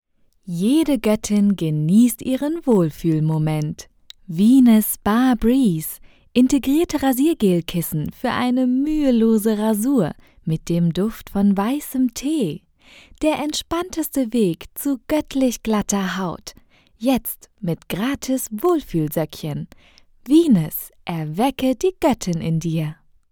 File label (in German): Werbung; Phungi